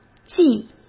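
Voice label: "忌", Mandarin Chinese; chinese-flashcards; hsk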